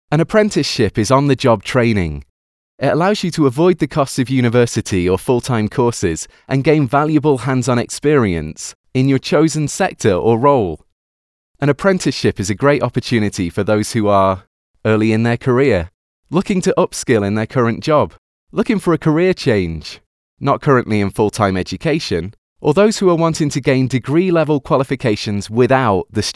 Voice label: Natural Northern Voice